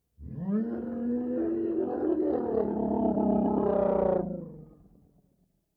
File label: dragon whine for food; keepers kingdom